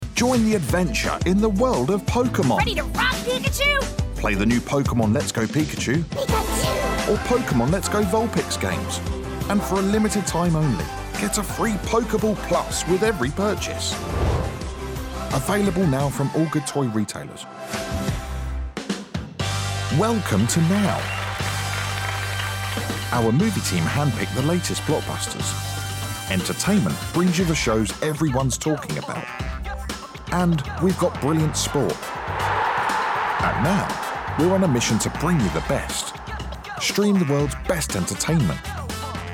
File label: Commercials